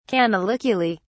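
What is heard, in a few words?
"canaliculi", British English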